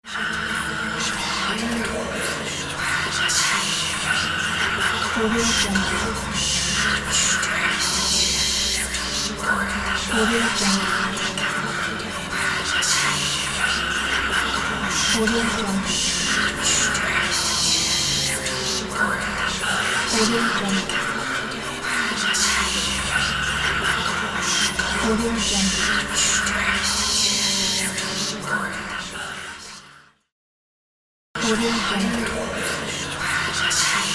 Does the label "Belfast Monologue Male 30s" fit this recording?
No